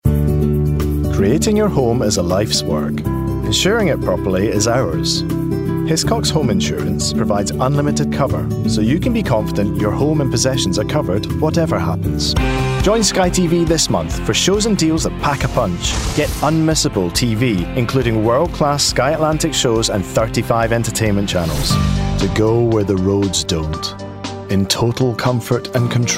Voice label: Commercial Voice